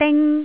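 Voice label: "ding1", Jyutping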